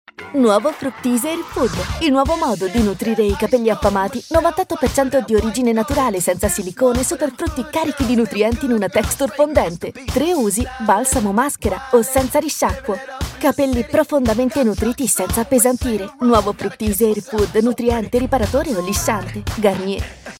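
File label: Energetic voiceover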